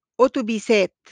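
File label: "أوتوبيسات", Arabic; buses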